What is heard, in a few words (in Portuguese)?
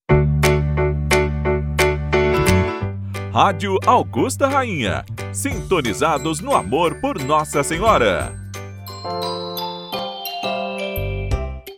Radio voz masculina